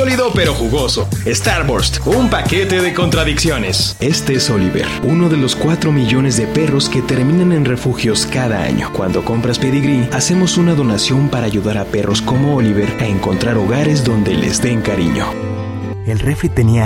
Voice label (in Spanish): COMERCIAL